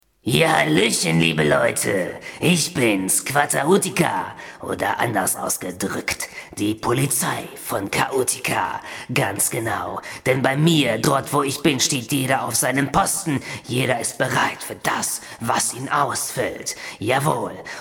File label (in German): Hoerspiel im Foyer